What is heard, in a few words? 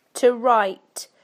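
"to write", British English; PictureDictionary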